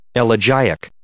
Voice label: "elegiac", English